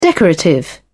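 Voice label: "decorative", British English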